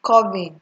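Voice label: "curving", Nigerian Pidgin, female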